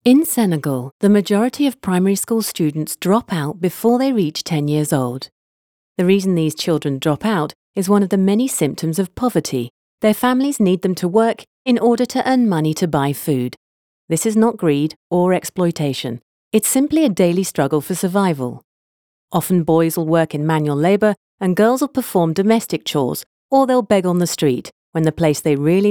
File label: Corporate VO, English, Female; Senegal Corporate Omantel VO